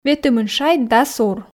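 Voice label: ветымын шайт дас ур, Komi, female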